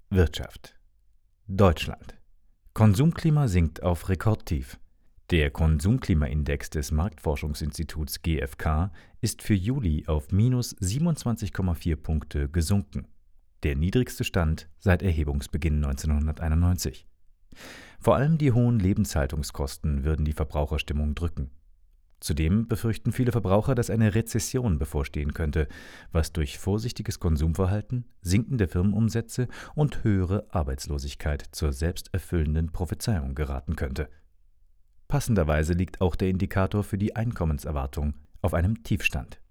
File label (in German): Voicesample Nachrichtensprecher